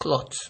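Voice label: "cloth", Nigerian Pidgin, male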